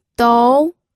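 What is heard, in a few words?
"dou", pinyin, second tone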